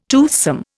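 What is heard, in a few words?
"toothwort", Indian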